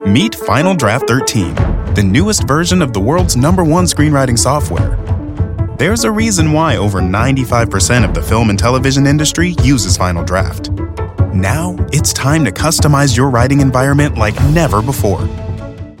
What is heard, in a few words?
Software Mixed Balanced Medium; Engaging, Sincere, Friendly; LANDR; Final Draft 13